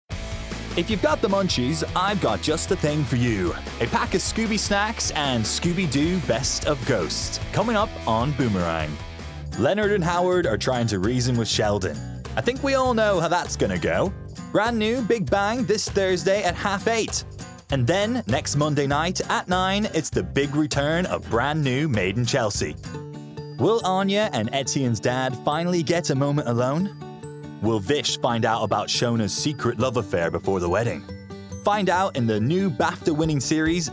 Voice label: Continuity Montage